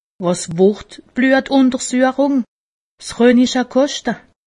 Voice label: "koste", alsace; medecine

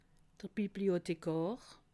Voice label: "bibliothekar", alsace

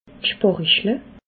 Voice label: "sparichle", Alsace

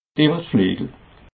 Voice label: "ewer", alsace

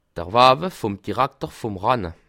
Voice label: "dirakter", alsace

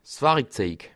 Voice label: "warikzej", Alsace

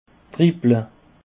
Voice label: "dribble", Alsace

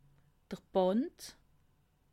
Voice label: "band", alsace

